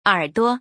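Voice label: "er3duo", Chinese; 耳朵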